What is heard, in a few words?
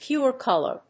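"pure color", English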